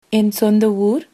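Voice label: I'm from, Tamil, female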